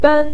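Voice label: "ben" neutral tone, Mandarin Chinese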